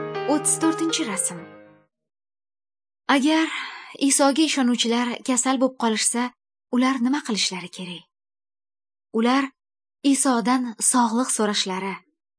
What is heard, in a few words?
good news female uzbek northern